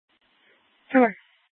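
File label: Scottsdale PD North Dispatch; Nth Dispatch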